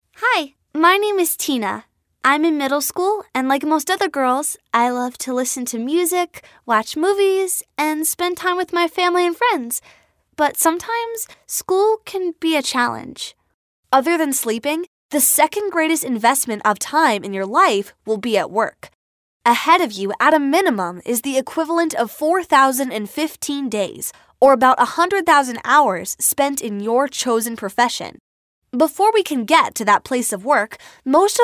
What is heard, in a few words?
elearning